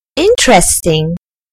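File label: "interesting", British English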